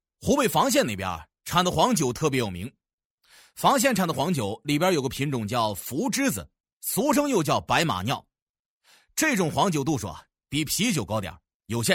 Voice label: 男 抖音信息流, 年轻全能 素人自然; 黄酒

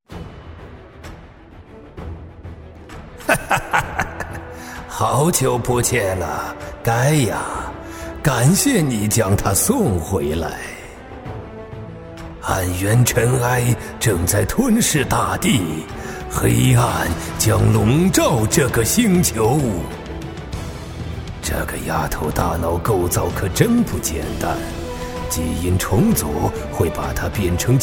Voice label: 男, 游戏角色; 中英双语 大气浑厚; 黑暗大帝

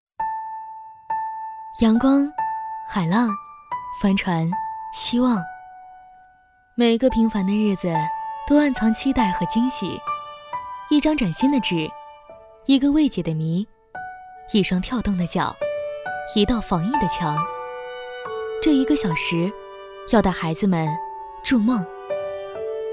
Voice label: 女 微电影; 低沉走心; 教育局

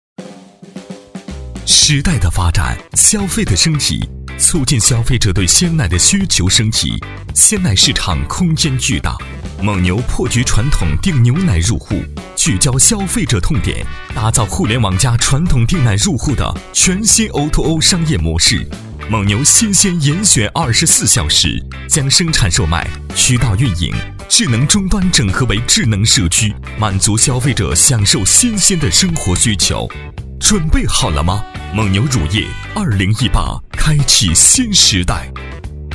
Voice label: 男 宣传片 经销商大会 时尚动感; 厚重磁性; 年轻时尚; 蒙牛经销商大会

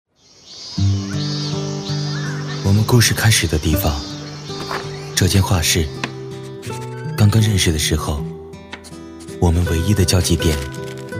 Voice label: 男C6-走心旁白-温暖走心, 年轻全能 质感磁性; 恋爱抒情

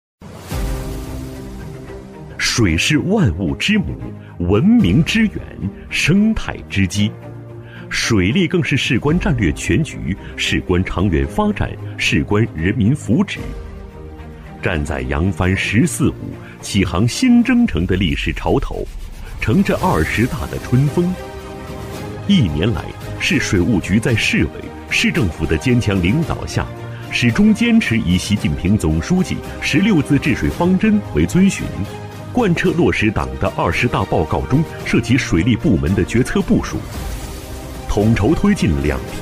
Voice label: 男 政府专题 大气稳重; 厚重有力; 大气浑厚

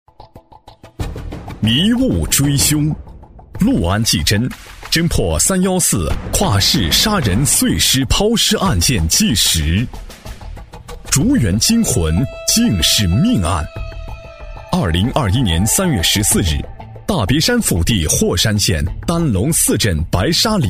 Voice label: 男 公安专题 大气悬疑; 公安破案; 大气磁性 大气浑厚; 公安专题《公安破案》-大气悬疑

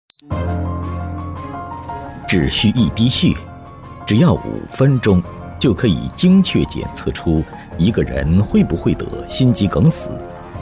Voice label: 男 科学探索旁白 悬疑紧张感, 高端内涵 大气浑厚; 5分钟检测心肌梗死; 男128-科学探索旁白《5分钟检测心肌梗死》-悬疑紧张感

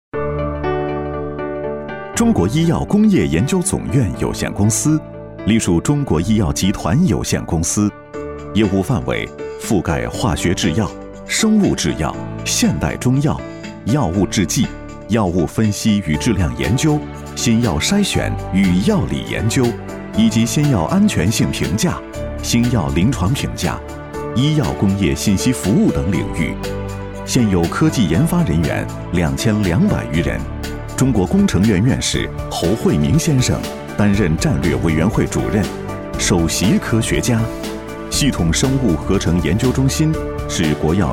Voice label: 男, 医药宣传片, 磁性抒情; 高端质感; 高端大气; 中国医药工业研究

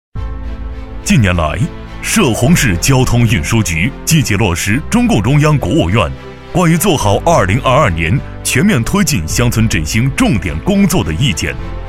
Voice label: 男 政府专题汇报 乡村振兴 正气有力; 男201-厚重力度

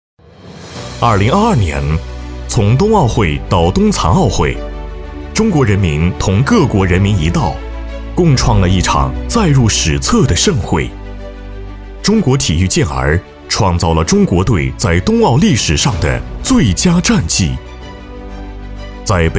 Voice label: 男 专题宣传 大气稳重 奥林匹克盛会; 男195-大气主流; 大气浑厚

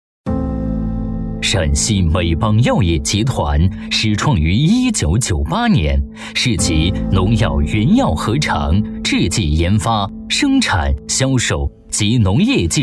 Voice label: 男 企业宣传 药业 大气沉稳; 男200-声音百变 沉稳大气; 陕西美邦药业

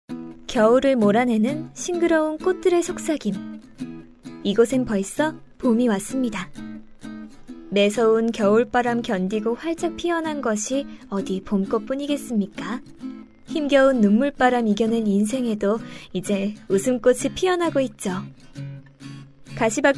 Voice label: 韩语女声 纪录片风格

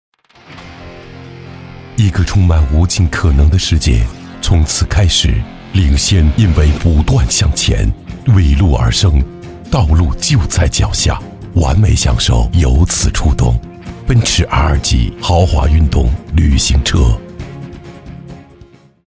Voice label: 男 品牌广告 磁性高端; 大气舒缓 高端大气

